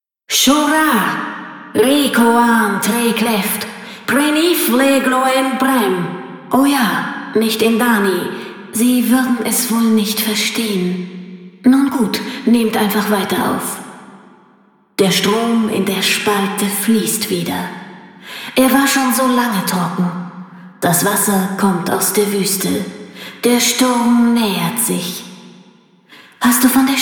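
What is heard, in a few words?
Intro Speech german; Yeesha; moul-assets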